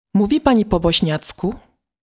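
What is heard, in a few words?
Polish, female, Mówi Pani po bośniacku?; Polnisch (w); Sprechen Sie Bosnisch?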